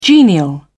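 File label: "genial", British English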